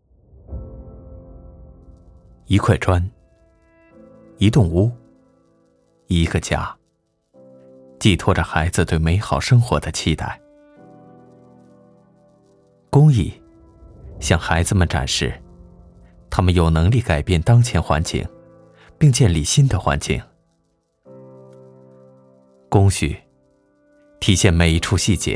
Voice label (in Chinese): 男 走心旁白